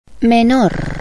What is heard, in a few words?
"menor", Spanish